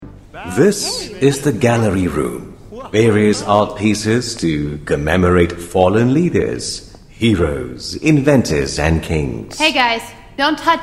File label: malay animation